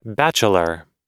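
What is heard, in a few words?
"bachelor", US English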